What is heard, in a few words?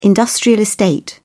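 "industrial park", British English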